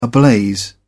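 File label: "ablaze", British English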